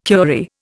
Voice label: "curie", Indian